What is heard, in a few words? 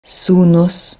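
"suHnus", Proto-Indo-European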